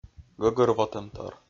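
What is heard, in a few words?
"гӧгӧрвотӧмтор", Komi-Zyrian, male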